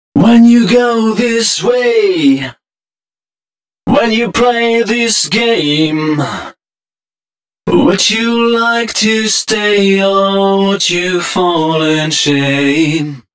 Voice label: male d#130, Dance Ultra Voice hooklines

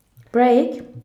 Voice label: "BREAK", SHOUTS; Best Service; Hyper Dance

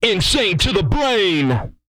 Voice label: insane, Vocal, R&B Dance, hip-hop; TB HIP-HOP

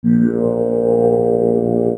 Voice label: "YEEOWW", Vocoder Vox

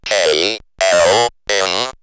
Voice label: Robot K, L, M, robot vox; X-Static Goldmine

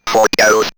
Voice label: Robot Vocals, robot vox; X-Static Goldmine

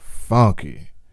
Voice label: "FUNKY", Male Vox, Hip Hop; Hip Hop Nation

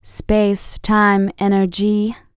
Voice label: FEM. SPOKEN; R&B Dance

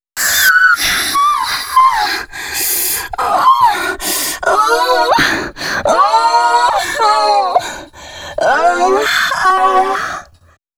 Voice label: female c#133, adlips

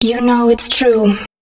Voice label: female, spoken hooks; Mutekki Media; Dance Ultra Voice VOL-1

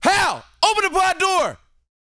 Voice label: TALK FF, Vocal Planet R&B Dance SCI-FI; 5 SCI-FI CHZ

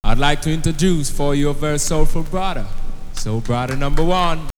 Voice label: Jamaican soul brother, vox; X-Static Goldmine

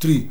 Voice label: "jugo", shouts; Best Service; Hyper Dance

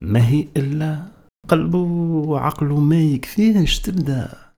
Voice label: POET, POET VOICE, Arabian Traditions

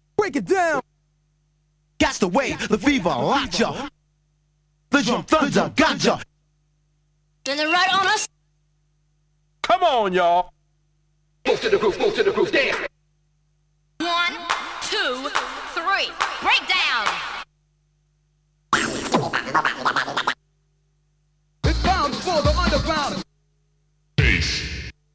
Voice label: Vocal Drops; Kniteforce; 1000 Samples Volume 1

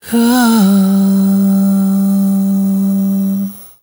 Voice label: ZULU MALE, DRY; Spectrasonics; Heart of Africa 2; S.MALEDRY

